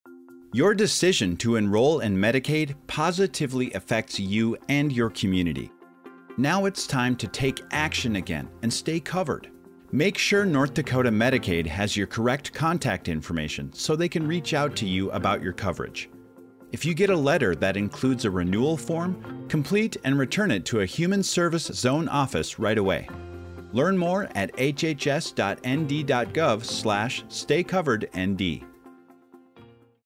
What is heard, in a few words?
tribal radio psa; stay covered nd